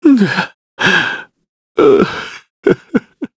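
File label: Sad, Korean; heroes; Shakmeh; kings raid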